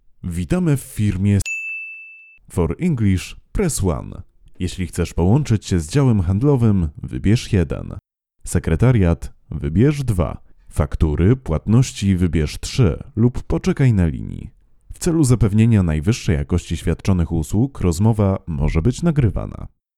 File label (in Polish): glos meski